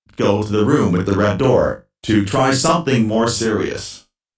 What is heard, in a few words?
serious